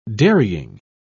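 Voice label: "dairying", British English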